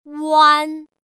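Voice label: "uan", Chinese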